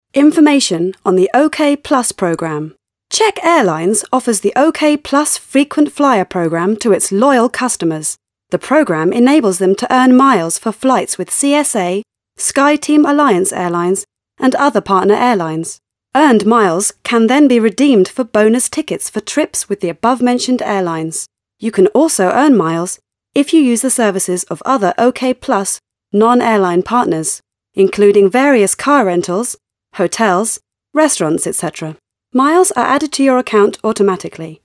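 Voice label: female, English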